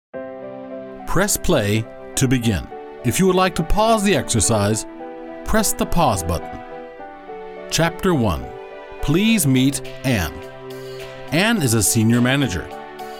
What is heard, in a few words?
e-learning take